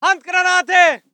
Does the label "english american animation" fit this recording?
No